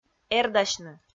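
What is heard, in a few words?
"эрдасьны", Komi, female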